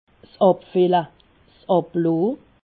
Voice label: "abfille", alsace